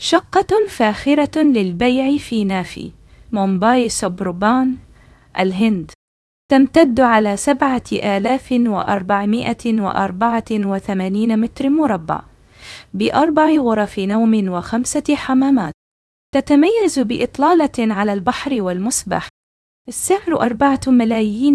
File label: narration audio